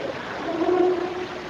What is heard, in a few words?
womans voice